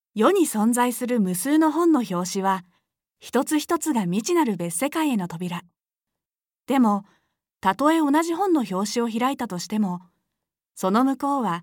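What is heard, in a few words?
narration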